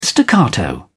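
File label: "staccato", British English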